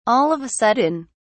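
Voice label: "all of a sudden", English, British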